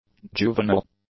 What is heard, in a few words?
"juvenile", english; adjectives